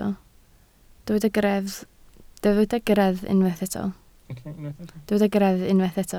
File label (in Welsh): "greddf" Welsh